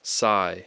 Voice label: "sigh", English; Speech Pro